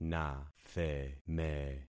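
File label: "natheme", concatenated syllables; Affixing experiment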